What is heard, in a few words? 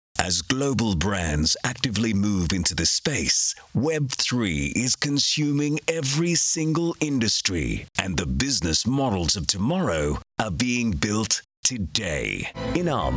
UK Corporate Voice